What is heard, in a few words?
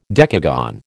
"decagon", American